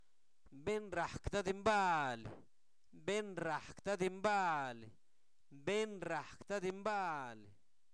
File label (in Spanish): apadrinamiento, Zapoteco, santo domingo albarradas; INALI; sustantivos